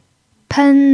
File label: "pen1", chinese pinyin